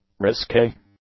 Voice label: "risque", English; adjectives